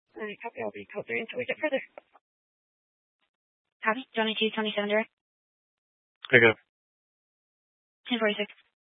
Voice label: Mesa County Sheriff Dispatch